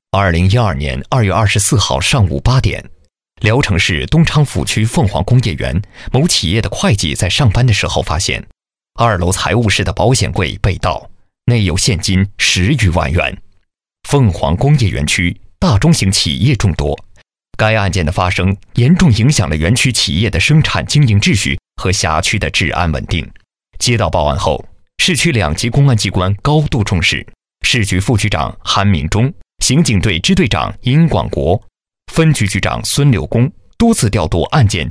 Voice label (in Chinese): 男 纪实片, 国语男声配音 磁性; 千里追踪样音